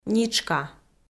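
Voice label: "нитшка", Komi-Zyrian, female; kpv audio dict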